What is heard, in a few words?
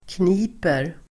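"kniper", Swedish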